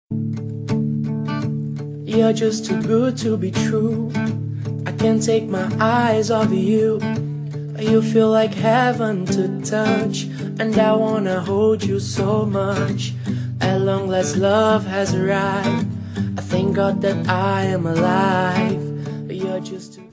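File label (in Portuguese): birthday PARA EX female, telemensagem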